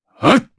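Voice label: Vox Casting, Japanese; kingsraid; voices heroes jp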